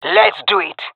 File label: agree, jungle fem epic; vo; agents; Source Model Packs; Counter Strike Model Pack; CS2